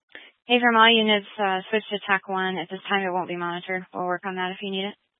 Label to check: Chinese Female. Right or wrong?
Wrong